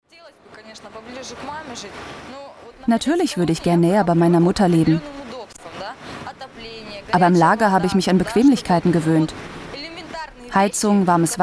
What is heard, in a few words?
docu VO german teen; tv documentary; VO teenager